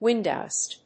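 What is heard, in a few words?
"Windows NT", English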